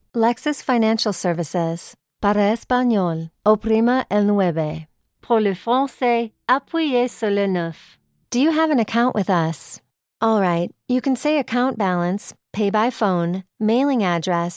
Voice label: female English American and Irish multiple accents IVR; voices; devine voices